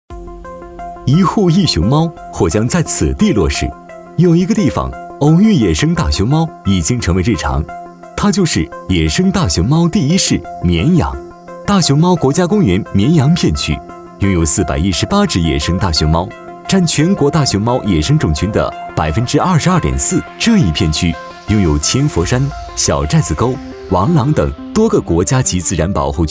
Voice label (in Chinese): 男 MG; 绵阳野生大熊猫